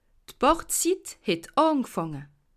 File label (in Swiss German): "bachzit", alsace